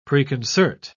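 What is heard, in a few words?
"preconcert", British English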